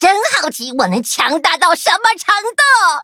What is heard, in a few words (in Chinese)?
levelup, zh-hant; cookie run